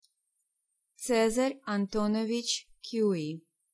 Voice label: "Cui", Pronunciation

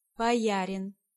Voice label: Boiar, Pronunciation

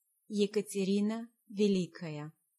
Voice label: "Catherine", Pronunciation

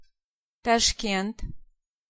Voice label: "Tashkent", Pronunciation

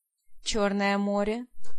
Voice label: "Black", Pronunciation

